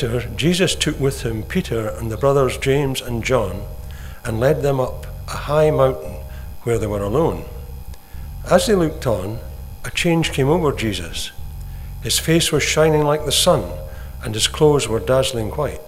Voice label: read; Matthew 17: 1-9